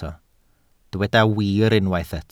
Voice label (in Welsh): "wir" Welsh